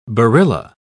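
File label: "barilla", British English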